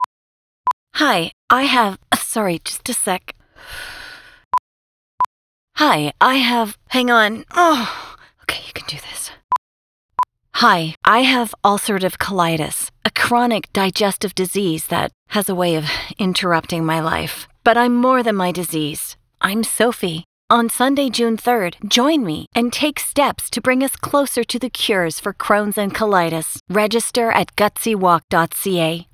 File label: radio PSA; Gutsy Walk; Crohns and Colitis; EN